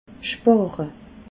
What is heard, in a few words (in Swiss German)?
"spare", alsace